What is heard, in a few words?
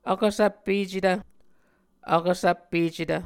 "vkvsvppecetv", Muscogee